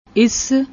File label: "ess", Punjabi; ਇਸ